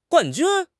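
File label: "guan4 jun1", Chinese; 汉语口语新时代-初级下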